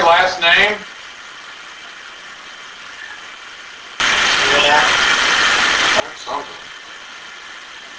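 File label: Unexplained whisper inaudible unexplained female voice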